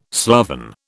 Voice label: "sloven", British